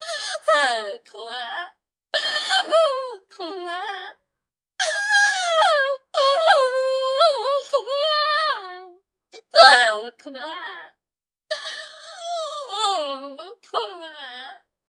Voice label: wow not that bad woman